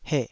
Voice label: "heh3", taiwanese